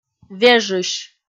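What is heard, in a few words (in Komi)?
"вежысь", Komi, female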